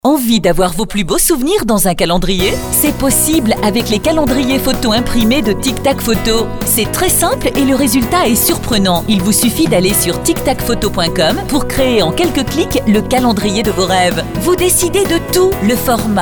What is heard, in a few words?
Spot Radio